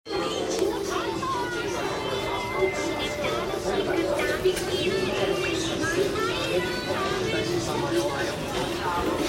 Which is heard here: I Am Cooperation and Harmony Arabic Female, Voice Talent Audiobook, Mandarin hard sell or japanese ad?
japanese ad